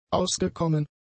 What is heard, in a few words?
"ausgekommen", German; adjectives